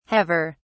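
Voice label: "haver", British English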